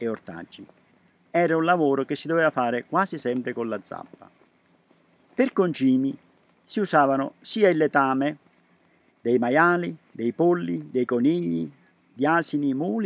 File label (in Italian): Semina italiano